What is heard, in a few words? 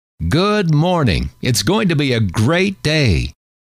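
good Morning It S Going To Be A Great Day, male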